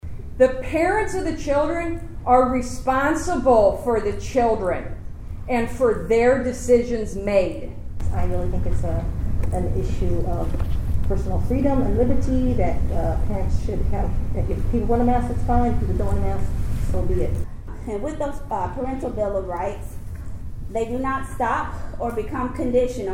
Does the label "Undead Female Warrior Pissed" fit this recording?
No